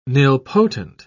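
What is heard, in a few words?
"nilpotent", British English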